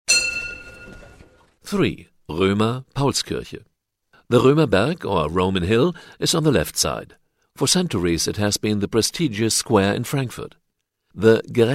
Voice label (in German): Englisch; Ebbelex; Entlang der Strecke; Römer Paulskirche